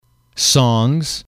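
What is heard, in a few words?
"songs", English, male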